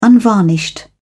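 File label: "unvarnished", English, British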